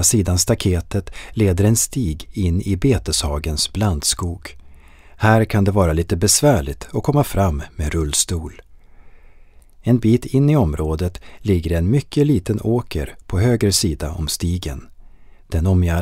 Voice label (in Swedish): syntolkning järnålderns landskap; bogsgard